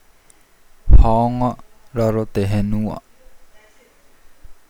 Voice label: "cave", Nukuoro

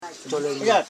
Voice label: "cho le ning", Koro house parts

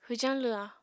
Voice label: "hwjañ lwha", Apatani

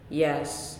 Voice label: "Sun", Nalik

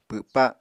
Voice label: "pwpa", Apatani

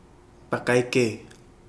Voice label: spider, Kapingamarangi

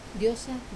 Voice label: "osa", stand, Wamesa

